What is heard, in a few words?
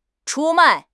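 "出卖", Mandarin Chinese; HSK; HSK6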